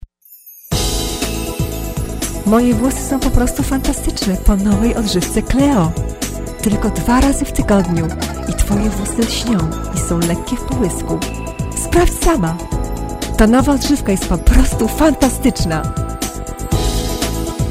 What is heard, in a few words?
voiceover